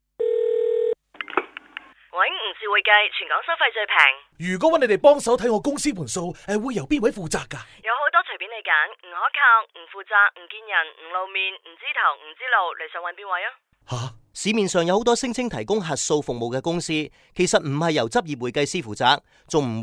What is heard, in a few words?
radio; section8 communications